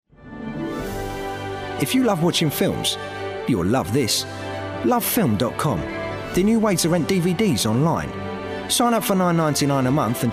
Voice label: Commercial